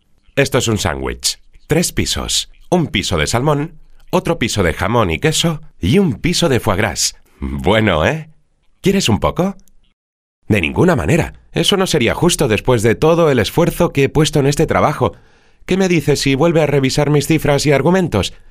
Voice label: Spanish male Castellano clean voice over